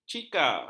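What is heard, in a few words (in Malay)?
"cika", Malay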